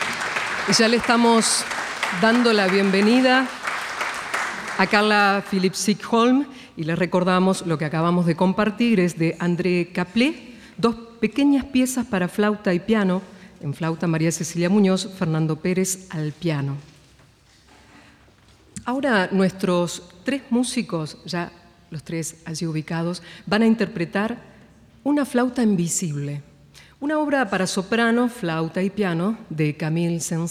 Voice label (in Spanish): locutora